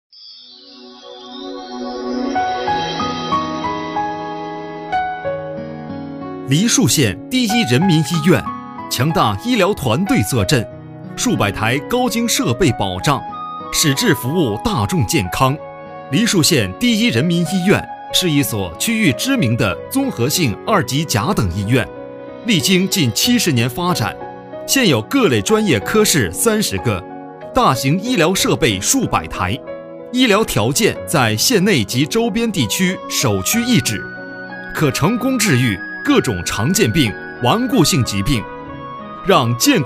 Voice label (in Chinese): 政企宣传